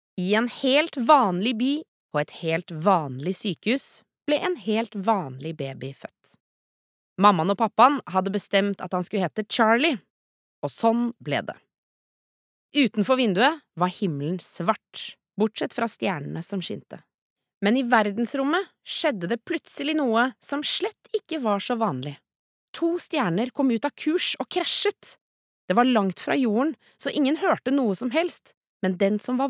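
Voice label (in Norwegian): barnebok, Norwegian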